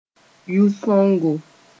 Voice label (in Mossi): "yʋ-sõngo", moore